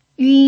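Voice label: "yun1", Mandarin Chinese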